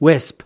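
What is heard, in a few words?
"wisp", US English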